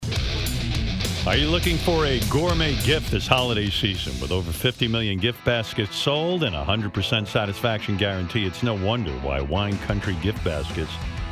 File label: Live Read; WineCountry